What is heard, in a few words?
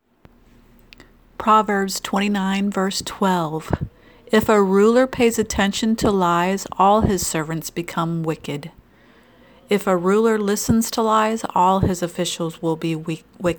Childrens Bible Verse